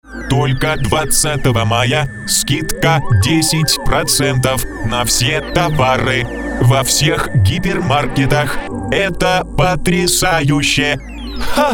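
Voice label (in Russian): робот; verter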